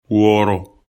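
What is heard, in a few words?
"wooro", Bambara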